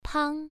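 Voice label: "pang", tone 1, pronunciation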